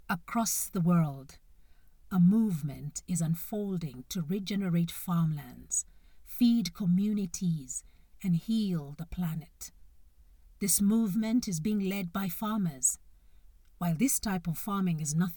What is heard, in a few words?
Professional Female Voice Over Talent; voice actor located in Nairobi, Kenya; Top50Farmers